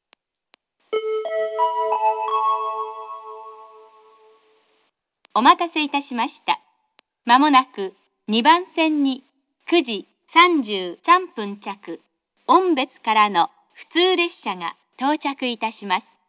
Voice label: local from ombetsu; kushiro; jr-hokkaido

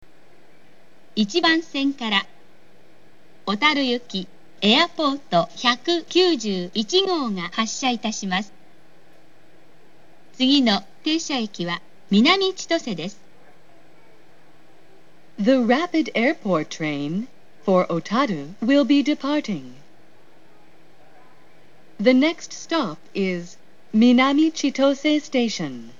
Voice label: rapid airport, station; new-chitose-airport; jr-hokkaido; otaru

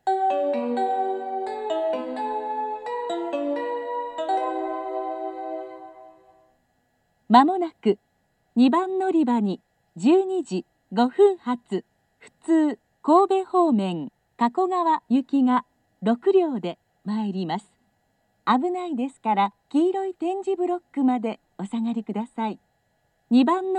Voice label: local kakogawa, hikone; station; jr-west

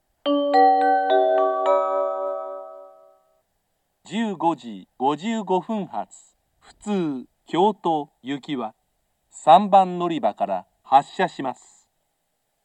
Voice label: local kyoto, tachibana; station

